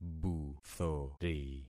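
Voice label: "buthori", concatenated syllables; Affixing experiment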